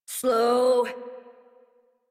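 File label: horse stop adult, English; Warframe; Duviri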